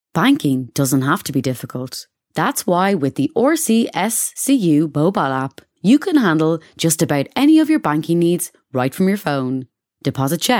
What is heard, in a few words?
Sincere